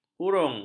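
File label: "hurung", Malay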